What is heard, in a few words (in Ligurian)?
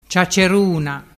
"ciacceruna", dialettu